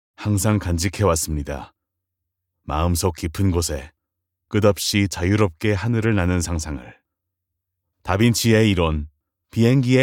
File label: Korean Narration